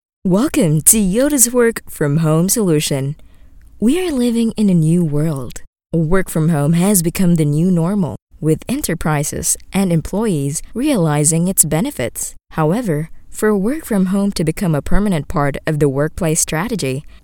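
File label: explainer english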